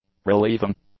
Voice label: "relieving", English; adjectives